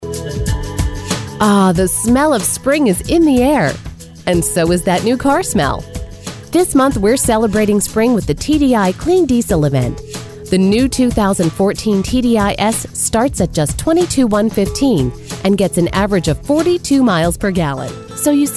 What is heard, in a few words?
Produced On Hold